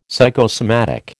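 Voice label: "psychosomatic", American English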